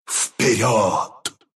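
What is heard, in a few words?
attack clone, Russian; Dota 2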